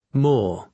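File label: "more", British English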